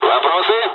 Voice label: dialogs russian; War Thunder